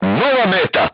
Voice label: voice message target destroyed, crew tanks serbian